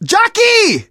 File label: jockey, survivor voice; Left 4 Dead 2; Source Engine; video games; manager